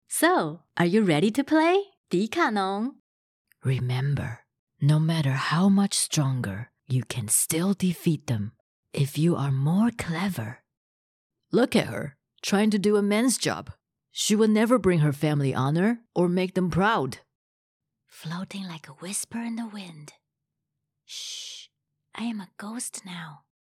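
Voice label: Simplified Chinese accented English; voice demos; GoLocalise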